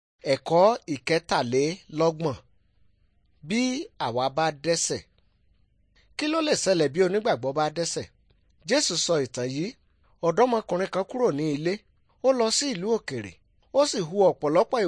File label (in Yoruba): good news yoruba; bible